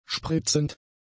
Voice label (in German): "spritzend", German; adjectives